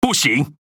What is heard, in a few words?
Speech Quick Commands No Way; World Of Warships; ZH TW